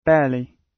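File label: "barely" British; Space, the planets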